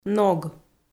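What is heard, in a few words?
"ног", Komi, female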